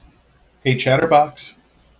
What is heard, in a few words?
hey chatterbox, en-us